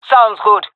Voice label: agree, jungle fem epic; vo; agents; Source Model Packs; Counter Strike Model Pack; CS2